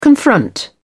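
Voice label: "confront", British English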